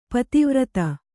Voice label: "ಪತಿವ್ರತೆ", Kannada

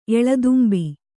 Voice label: "ಎಳದುಂಬಿ", Kannada

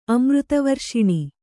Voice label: "ಅಮೃತವರ್ಷಿಣಿ", Kannada

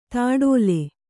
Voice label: "ತೊಟ್ಟಿಲ್", Kannada

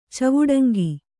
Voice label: "ಚವುಡಂಗಿ", Kannada

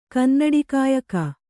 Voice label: "ಕನ್ನಡಿಕಾಯಕ", Kannada

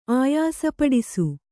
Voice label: "ಆಯಾಸಪಡಿಸು", Kannada

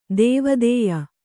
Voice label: "ದೇವಾದಾಯ", Kannada

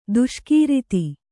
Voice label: "ದೇಸೆಗಾರ್ತಿ", Kannada

